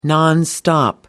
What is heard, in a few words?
"nonstop", en uk